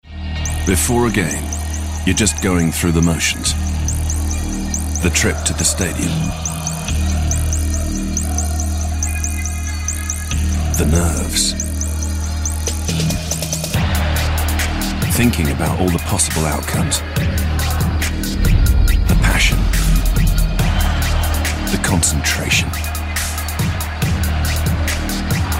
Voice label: male british english sport comercial; ideasonora